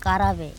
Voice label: "karabe", Andamanese; come out of water